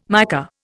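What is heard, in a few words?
"mica", English, Indian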